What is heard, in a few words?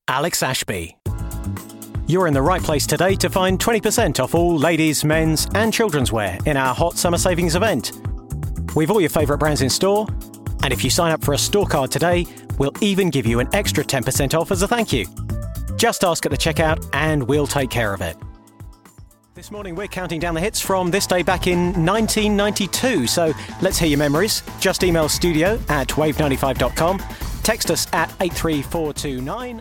radio reel